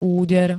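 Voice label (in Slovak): "úder", Slovak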